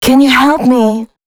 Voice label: female spoken hooks, dance ultra voice; Mutekki Media; Dance Ultra Voice VOL-1

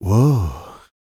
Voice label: male spoken; R&B Dance

